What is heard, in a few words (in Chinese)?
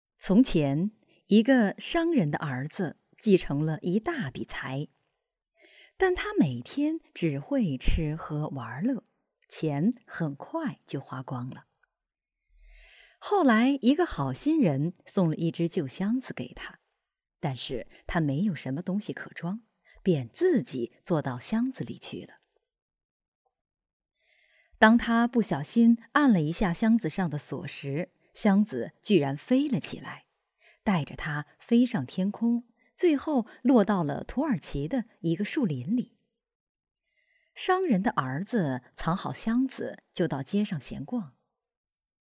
少儿童书